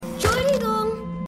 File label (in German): Junge; Supergirl